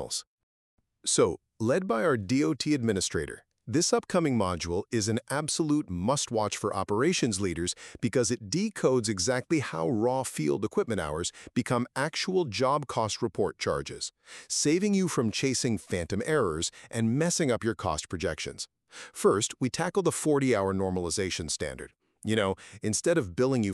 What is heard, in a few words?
trailer; Equipment Cost Fundamentals Academy